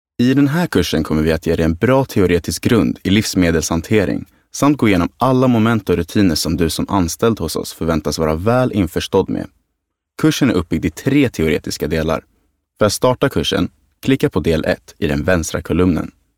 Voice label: E-learning, Swedish E-Learning Demo, male

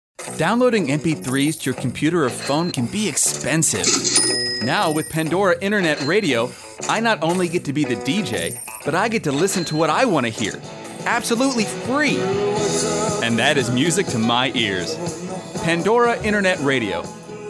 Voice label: Commerical

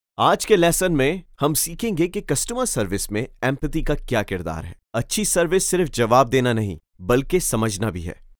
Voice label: Urdu E-learning Training

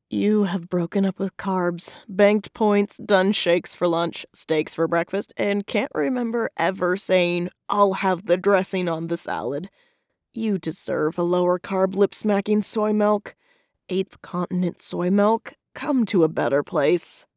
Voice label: empathetic humor; 8thcontinent

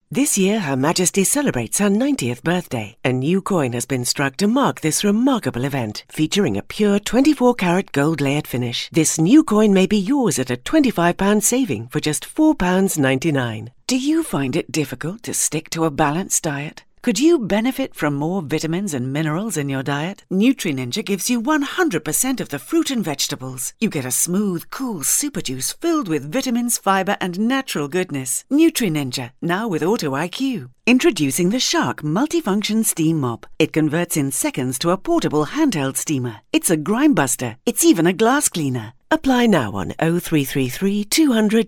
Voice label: tv advert

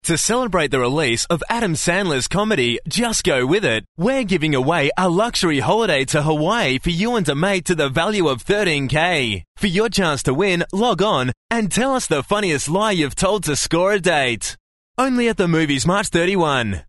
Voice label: radio sample

